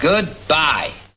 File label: "good bye" male; expletives